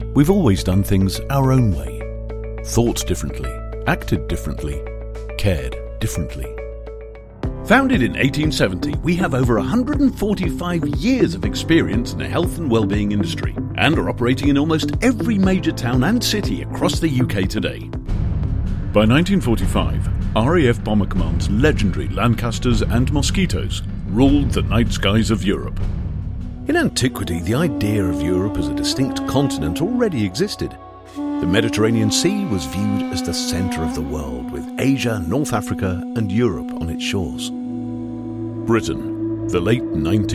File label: Narration